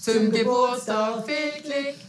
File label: birthday, alsatian